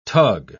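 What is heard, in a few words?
"tug", English word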